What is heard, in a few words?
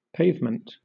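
"pavement", British English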